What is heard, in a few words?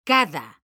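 "cada", Spanish, female; mekanta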